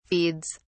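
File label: "feeds", British English, dictionary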